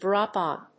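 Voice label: "drop on", English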